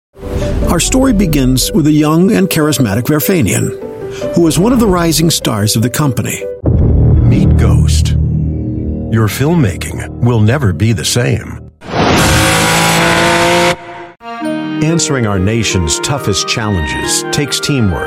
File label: US English corporate narration